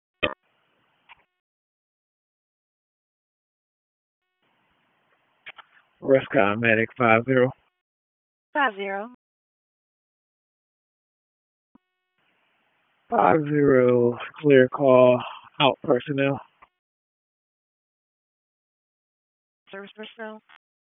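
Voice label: EMS Dispatch; wake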